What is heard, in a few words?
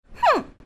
Huh Radio